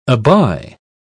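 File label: "abye", English, British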